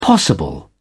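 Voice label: "possible", British English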